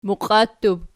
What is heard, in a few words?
"muqiattub", Archi